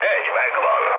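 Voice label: player killed enemy, mood med, Hungarian; speech; War Thunder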